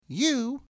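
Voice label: "U", English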